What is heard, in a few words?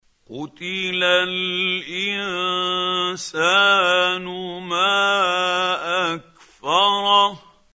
quran, Hussary, tafseer; Abasa